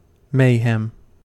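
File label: "mayhem", American English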